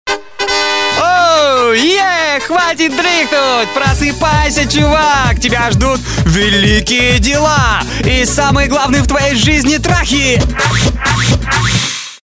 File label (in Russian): budilnik prosipajsya chuvak